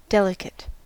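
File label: "delicate", US English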